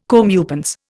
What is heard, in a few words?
"comeuppance", Indian